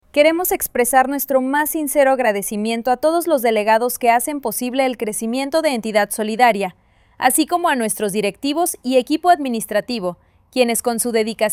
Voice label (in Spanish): femenino